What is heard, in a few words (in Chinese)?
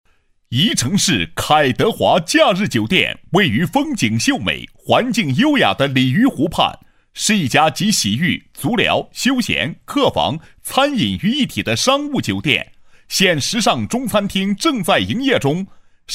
广告 酒店介绍类入住宣传广告,男; 干音; 新闻广告专题颁奖